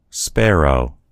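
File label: "sparrow", American English; gcompris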